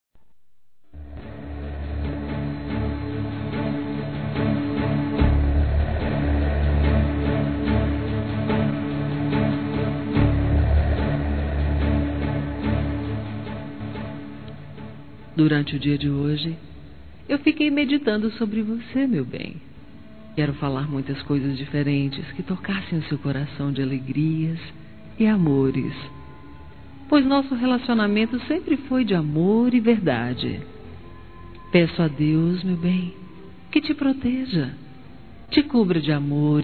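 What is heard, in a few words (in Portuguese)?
ANIVERSARIO ROMANTICO, feminino; Telemensagens; Telemensagens 2017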